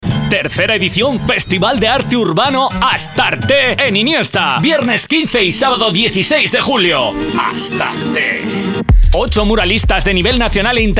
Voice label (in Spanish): HOMBRE